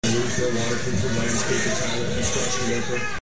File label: robot